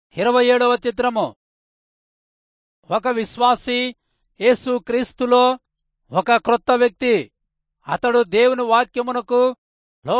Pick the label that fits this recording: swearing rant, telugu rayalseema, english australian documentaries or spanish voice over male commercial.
telugu rayalseema